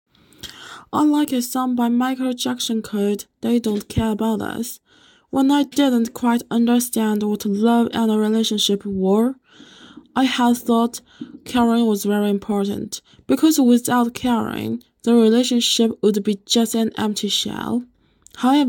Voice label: 英语

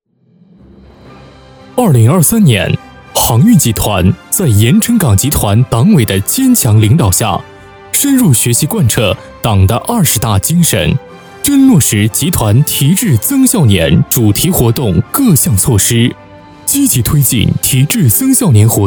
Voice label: 集团专题 坚定磁性; 航运集团; 维特根斯坦

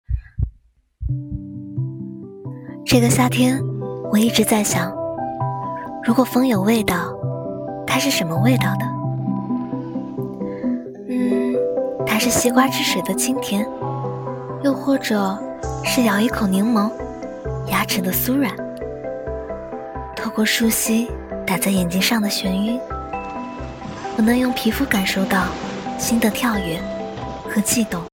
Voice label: 夏日独白

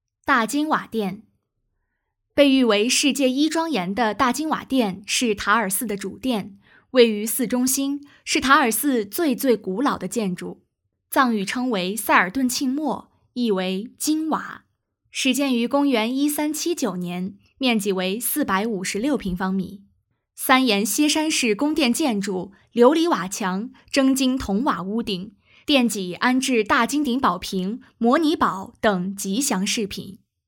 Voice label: 样音声音偏清脆

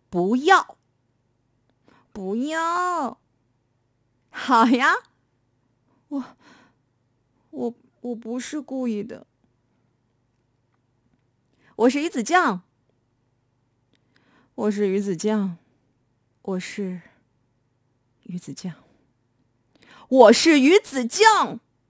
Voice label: 不同声线

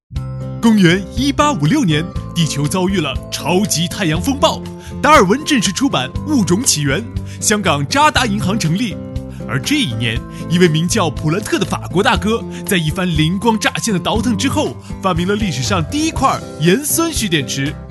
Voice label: 风格试音

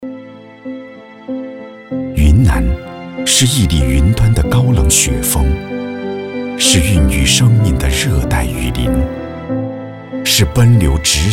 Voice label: 城市风光旅游风景宣传片; 云南

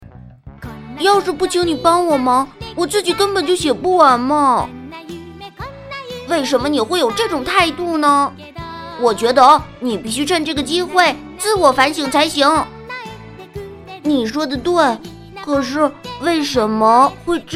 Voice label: 动画角色; 大雄哆啦a梦

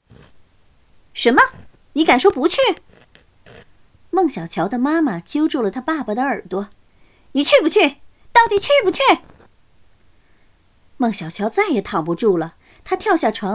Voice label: 人物配音

